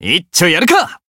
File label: Voice chant jp